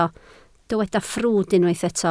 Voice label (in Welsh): "ffrwd", Welsh